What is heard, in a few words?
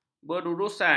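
"berurusan", Malay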